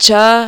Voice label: "cha", marathi